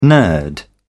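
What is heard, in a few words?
"nerd", British English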